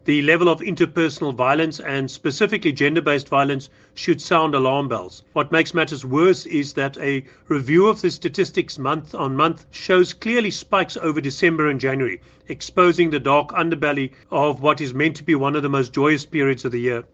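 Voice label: English; newsflash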